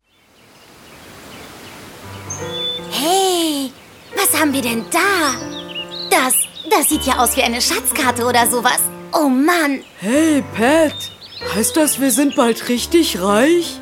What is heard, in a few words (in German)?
comicstimmen